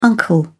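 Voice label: "uncle", British English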